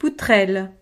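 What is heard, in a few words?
"poutrelle", French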